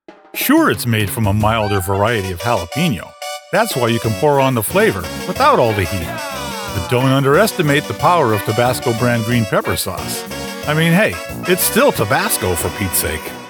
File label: COMMERCIALS